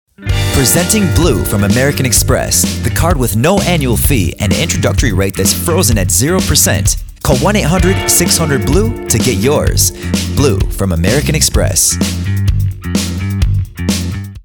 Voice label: 外籍英男 广告

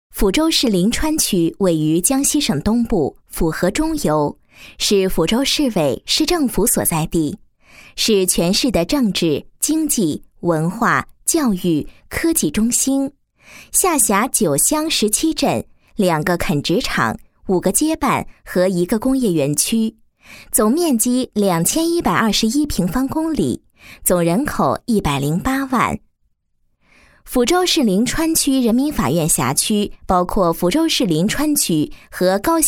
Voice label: 女19 专题 解说 政府 法院 服务, 国语女声配音; 人民法院建设掠影

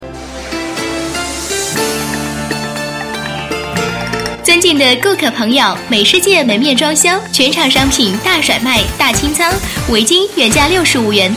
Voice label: 国语女声配音, 经济广告; 美饰界

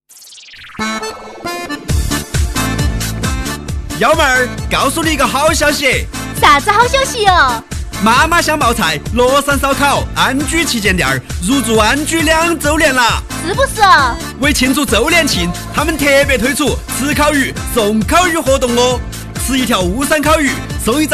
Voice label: 男, 方言; 妈妈香冒菜

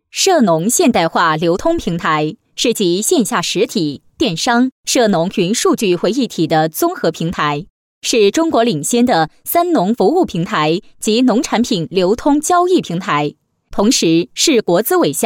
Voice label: 女 专题 涉农现代化流通平台 磁性

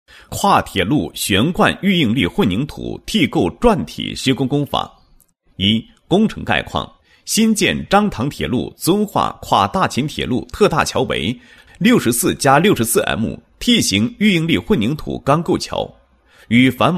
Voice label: 男 解说词; 宝成-跨铁路悬灌预应力混凝土T构转体施工

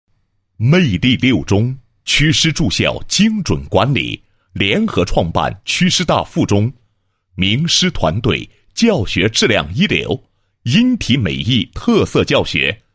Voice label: 男, 宣传广告; 魅力六中宣传广告

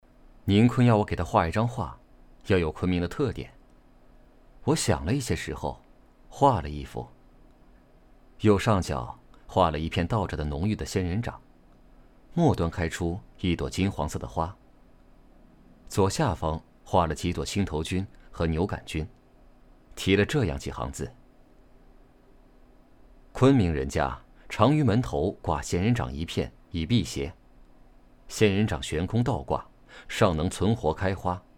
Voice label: 男 独白; 昆明的雨

